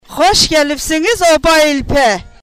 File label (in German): aserbaidschanisch